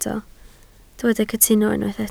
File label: "cytuno", Welsh